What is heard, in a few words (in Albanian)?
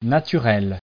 "naturel", frengjisht